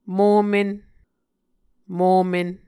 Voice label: "momen", Muscogee

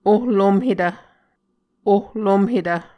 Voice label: "ohlomhetv", Muscogee

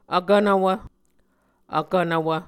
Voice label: "vkvnvwv", muscogee

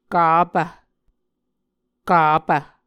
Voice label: "kapv", Muscogee

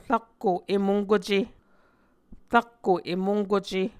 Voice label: "rakko emonkuce", Muscogee

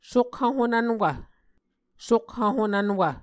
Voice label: "sokhv honvnwv", Muscogee

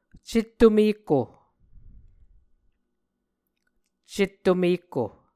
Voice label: "cetto-mekko", muscogee; Mvskoke Words with Repeated Consonants; A Dictionary of Creek/Muskogee; SIL International

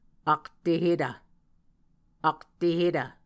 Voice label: "aktehetv", muscogee

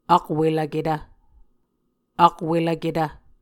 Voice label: "akwelvketv", muscogee; Mvskoke Words with Voicing Consonants; A Dictionary of Creek/Muskogee; SIL International; Onvkv Ohhvyayices

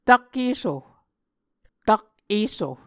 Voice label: "tak-esso", Muscogee

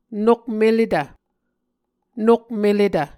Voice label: "nokmeletv", Muscogee

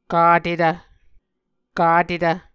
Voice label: "katetv", Muscogee

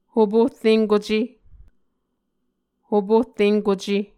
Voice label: "hoporrenkuce", Muscogee

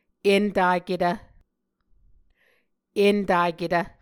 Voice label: "entaketv", Muscogee

